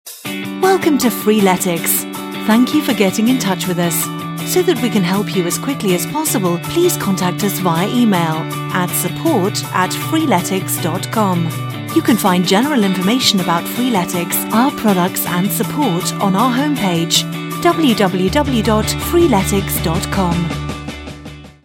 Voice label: voicemail greeting uk; english; after-hours greeting

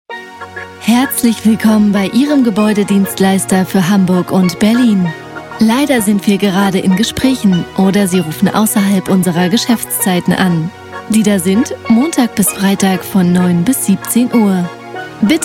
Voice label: german

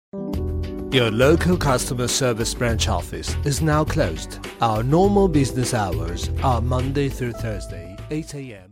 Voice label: en

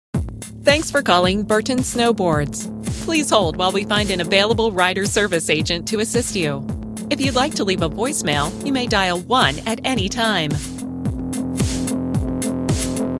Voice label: on hold before call; english; on hold message

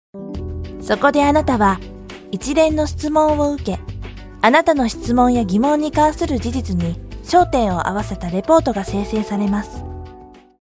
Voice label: jpn

